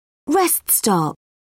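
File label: "rest stop", British English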